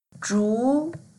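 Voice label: "zhu2", Mandarin Chinese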